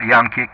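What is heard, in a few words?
"Yankee", English; Ka-50; Alphabet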